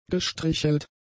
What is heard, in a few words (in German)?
"gestrichelt", german; adjectives